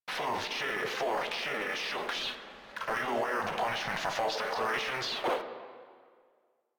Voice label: Controller, Radio Interceptions

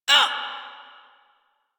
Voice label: Pain, Female; Railjack Crew; Cephalon Suda

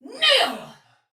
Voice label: Attack, Soldier Female, Battle; Sinecure

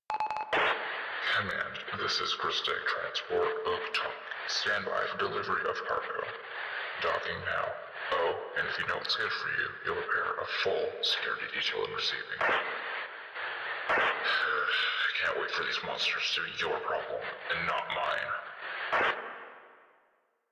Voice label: Traffic Pilot, Radio Interceptions; Rail Traffic; Grineer Pilot

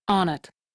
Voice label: crew accept, female; Dialog; en; Warframe; Railjack Crew; Steel Meridian

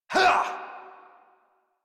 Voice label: Adult Operator Melee Swing exert; New War

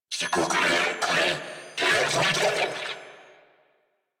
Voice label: Attack Grineer Male; New War; Narmer

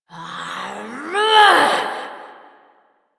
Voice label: Frustration

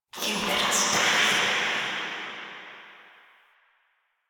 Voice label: Cave Whispers; Ambience; Orokin; Warframe; Stalker Cave

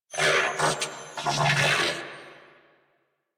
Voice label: Player Spotted Grineer Male; New War; Narmer

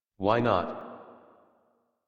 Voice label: Adult, en, Dialog; Escape; Warframe; Duviri Paradox Quest

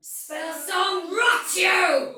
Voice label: Attack Heavy Soldier Female, Battle Barks; Dialog; Sinecure

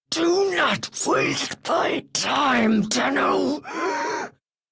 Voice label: Death Squad Taunt; Shik Tal; Warframe; en

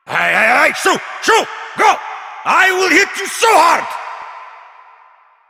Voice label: Male Older; Villagers; en; Ostron; Warframe; Cetus Invasion

